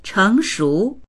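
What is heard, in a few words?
"cheng2shu2", Chinese; 成熟; chéngshú; 成熟した、熟する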